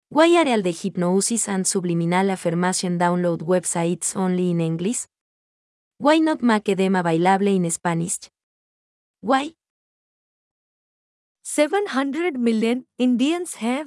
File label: Multiple Languages